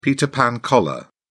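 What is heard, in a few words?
"peter pan collar", British English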